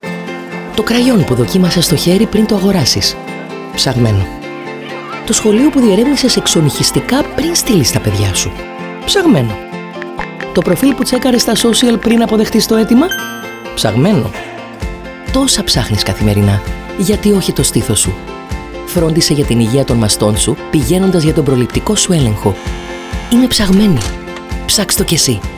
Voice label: radio spot; Prolipsis